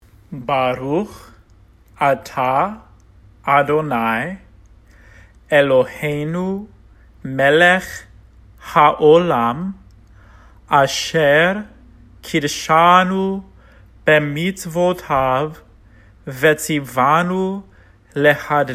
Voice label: Blessings Over the Lights Celebrate Hanukkah Hebrew Pronunciation